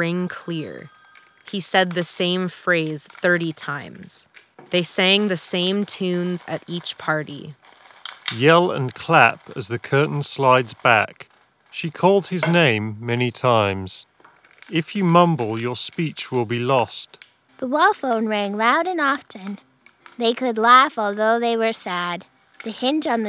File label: Office microphone sample; Logitech G735